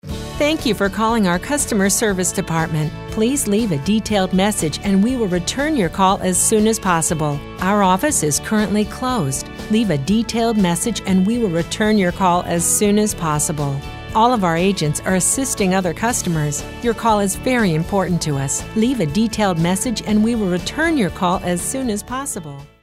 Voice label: informative

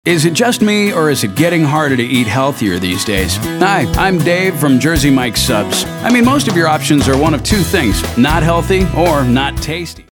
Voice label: anti announcer

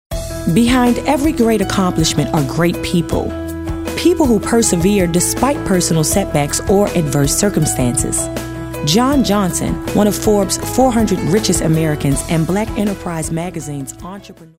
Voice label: motivational

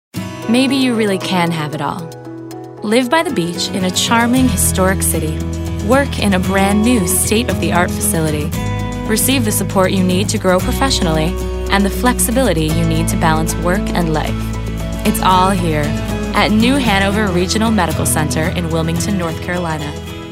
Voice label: informative

